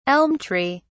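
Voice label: "elm tree", British English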